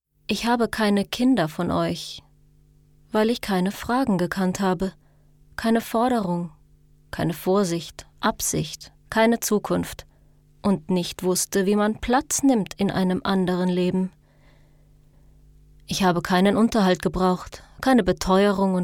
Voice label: erzaehlung

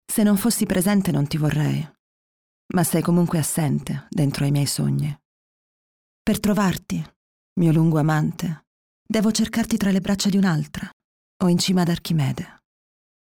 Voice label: hoerprobe hoerspiel

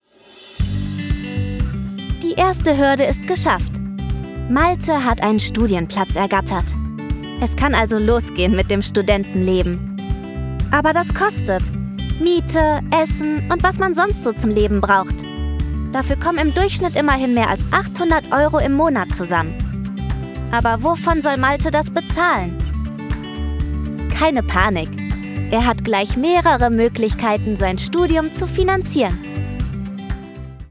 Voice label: Erklärfilm

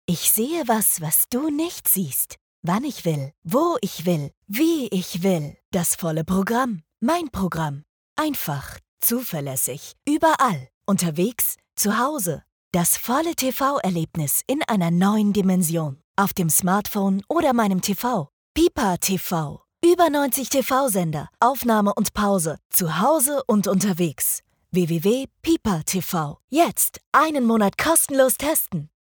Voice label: Werbung TV

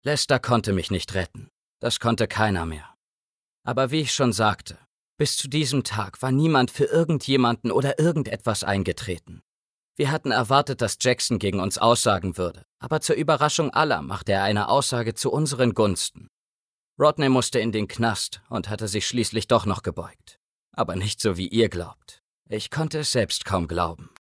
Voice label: erzaehlung

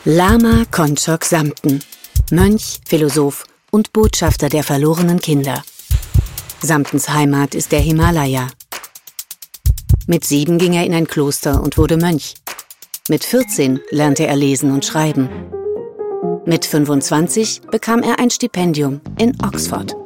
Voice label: Intro TalkSendung

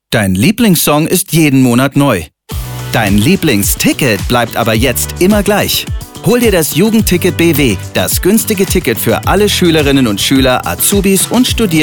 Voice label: Onlinespot Mobilitaet, Commercial (Werbung), German; Werbung - Mobilität; NVBW

